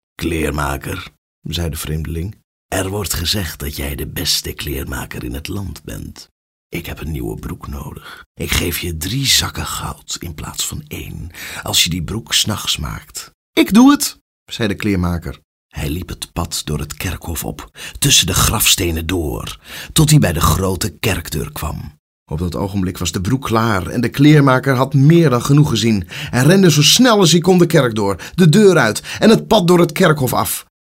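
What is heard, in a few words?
dutch male voice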